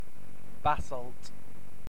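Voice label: "basalt", British English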